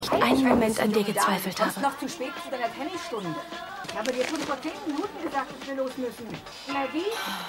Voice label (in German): Mutter; Maggies; AusgerechnetAlaska